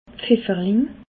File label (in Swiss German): "pfifferling", Alsace